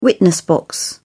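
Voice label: "witness box", English, British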